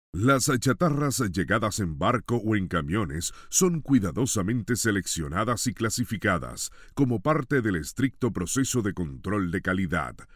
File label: locutor Puerto Rico, Puerto Rican voiceover; locutor de Puerto Rico